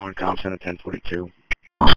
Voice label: Police Dispatch

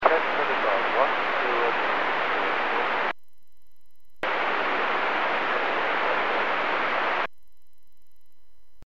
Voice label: Aviation 122.7; KSTE

